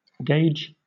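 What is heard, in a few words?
"gage", British English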